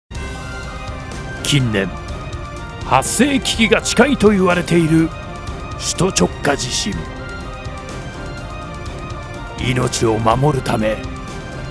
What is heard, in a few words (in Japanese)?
ボイスサンプル 防災CM